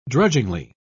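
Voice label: "drudgingly", British English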